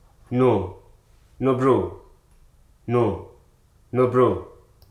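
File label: "i", spoken word; hruso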